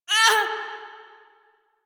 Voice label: Pain, Female; Railjack Crew; Cephalon Suda

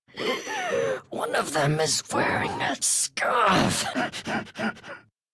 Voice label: Death Squad Taunt; Shik Tal; Warframe; en

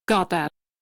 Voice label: crew accept, female; Railjack Crew; Steel Meridian

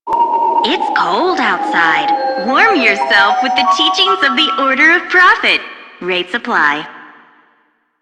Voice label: PA system; en; Dialog; Warframe; Solaris Venus

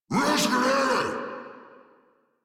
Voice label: Player Spotted, Battle Barks, en; Grineer Ghoul Drill Sargeant

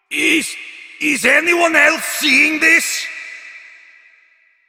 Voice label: Male Older; Villagers; en; Ostron; Warframe; Cetus Invasion

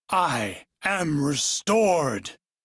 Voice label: Crew Heal, Male; Dialog; en; Warframe; Railjack Crew; Arbiters Hexis

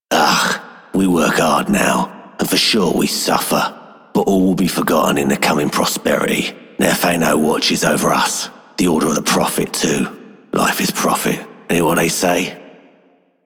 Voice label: Male Worker, Male One, en; Dialog; Warframe; Solaris Venus

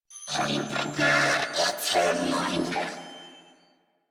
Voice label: Patrol, Female; New War; Narmer Grineer

